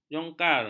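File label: "jongkar", Malay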